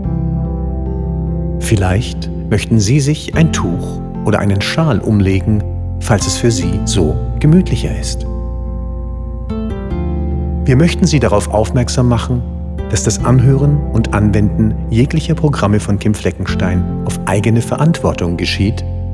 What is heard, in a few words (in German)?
Meditation Koerper